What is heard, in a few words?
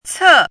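"cè", Chinese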